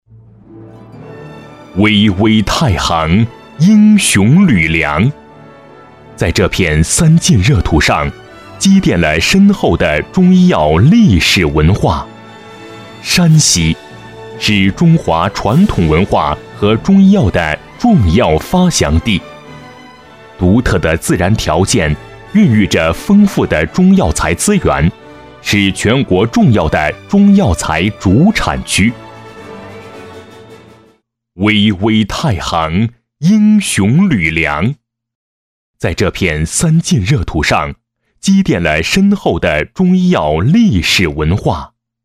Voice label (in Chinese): 男声 厚重 专题; 国语男声配音; 山西省中药材